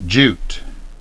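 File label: "jute", English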